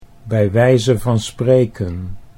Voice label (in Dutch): bij wijze van spreken, Dutch